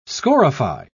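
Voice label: "scorify", British English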